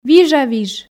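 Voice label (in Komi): "вижа-виж", Komi, female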